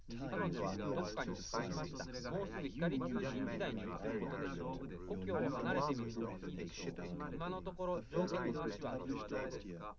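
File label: male, live recording, 130 ms, 1 m, mix; SiSEC11; underdetermined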